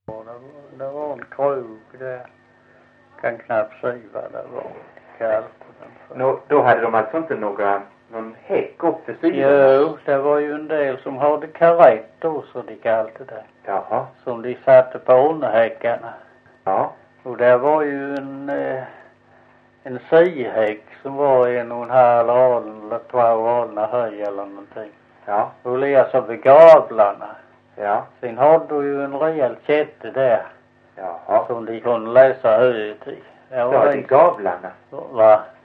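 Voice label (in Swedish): Visseltofta Skåne, svenska sagor dialekter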